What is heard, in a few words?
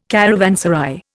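"caravanserai", Indian English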